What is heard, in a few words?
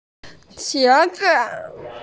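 "qie2 zi5", Mandarin Chinese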